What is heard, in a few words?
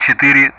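Speech Sound Russian; DCS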